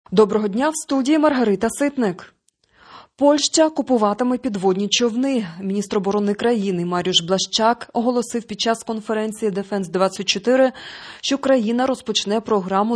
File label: Ukrainian Evening News